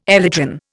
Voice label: "elytron", Indian